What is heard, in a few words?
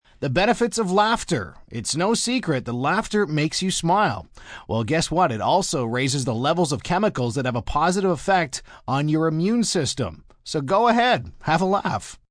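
News Laugh, male news item on light health, benefits of laughter; Broadcast, news; human voice speaking; VoiceBox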